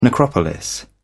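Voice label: "necropolis", British English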